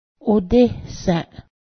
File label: nest, Cayuga